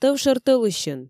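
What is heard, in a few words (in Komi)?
тӧвшӧр тӧлысьын, Komi, female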